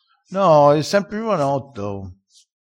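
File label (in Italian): "giovanotto", vocabolario dialettale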